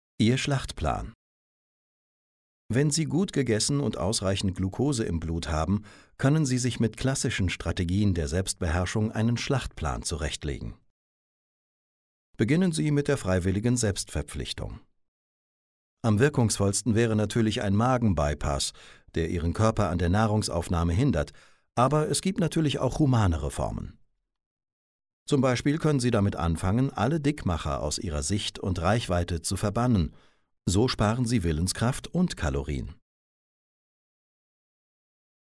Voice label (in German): hoerbuch